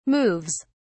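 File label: "moves", British English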